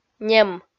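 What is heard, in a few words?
"нем", Komi-Zyrian, female; kpv audio dict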